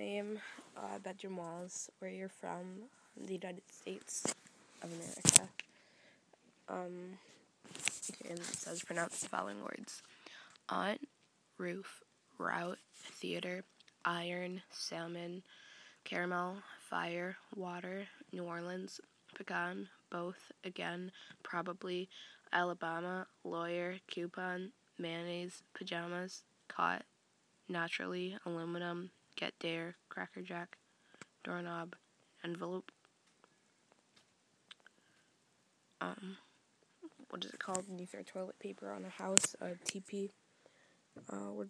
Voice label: accent challenge